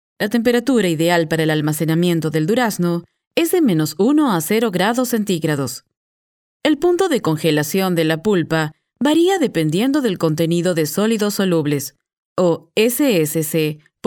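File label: Spanish, female, e-learning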